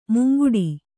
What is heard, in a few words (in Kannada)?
"ಮುಂಗುಡಿ", Kannada; munguḍi; noun; the fore point.